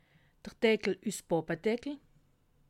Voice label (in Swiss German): "deckel", alsace